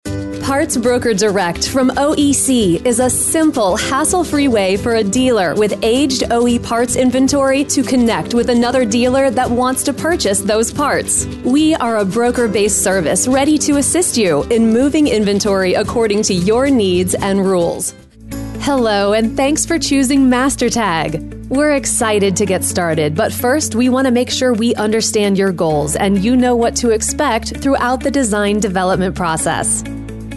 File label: Upbeat Perky